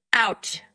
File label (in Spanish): Voice Acting es